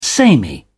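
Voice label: "samey", British English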